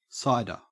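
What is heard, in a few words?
"cider", English, Australian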